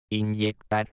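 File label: "inyectar", Spanish; Y (o hie); Fonetica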